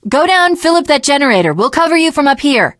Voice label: finale chat, teengirl, voice; Left 4 Dead 2

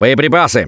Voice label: Spot Ammo, russian, Survivor Voice; Gambler

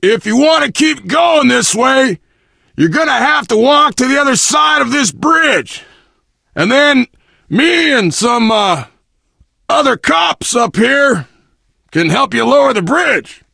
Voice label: initial meeting, survivor voice biker; Left 4 Dead 2

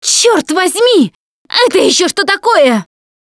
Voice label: warn tank, Russian; Voice; Producer

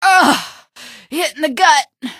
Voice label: hit in gut, female; npc; Garry's Mod; hl2

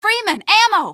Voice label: ammo, female; npc; Garry's Mod; hl2

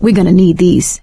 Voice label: take pills, survivor voice; Left 4 Dead 2; producer

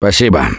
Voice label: thanks, russian; Gambler

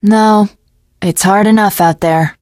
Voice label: teengirl, voice; Left 4 Dead 2; l4d1 finale

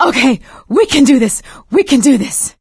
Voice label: hurrah, survivor voice; Left 4 Dead 2; producer

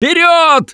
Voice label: emphatic go, russian; Gambler

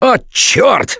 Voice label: reaction negative, russian; Gambler

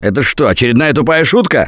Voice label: reaction negative, russian; Gambler

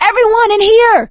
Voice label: stay together inside, survivor voice; Left 4 Dead 2; producer

